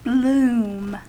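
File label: "bloom", American English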